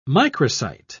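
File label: "microcyte", British English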